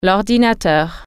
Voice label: "computer", French

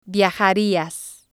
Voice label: "viajarias", Spanish

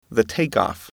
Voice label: "take off", English

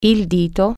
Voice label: toe, italian

